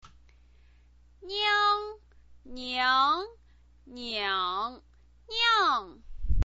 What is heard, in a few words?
"niang", chinese pinyin